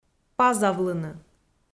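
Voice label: "пазавлыны", Komi, female; audio dict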